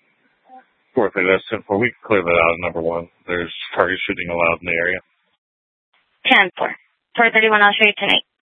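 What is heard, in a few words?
Sheriff, Parks, Sheriff radio scanner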